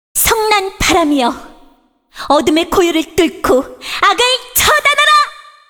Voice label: cinematic voice; lineage2